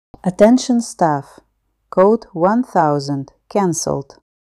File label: emergency announcement coded cancel; emergency voice announcements